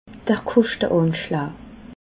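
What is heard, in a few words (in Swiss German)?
"koschteanschlaj", Bas Rhin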